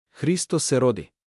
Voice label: christmas macedonian